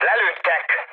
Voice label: aircraft destroyed, speech hun; War Thunder